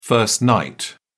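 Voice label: "first night", British English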